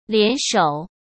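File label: "联手", Mandarin Chinese; hsk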